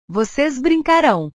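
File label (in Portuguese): "vocês brincarão", Portuguese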